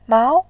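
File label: "mao", Mandarin, tone 2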